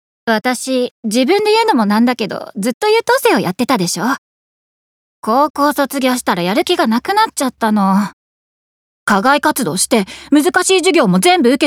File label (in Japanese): 燃え尽き大学生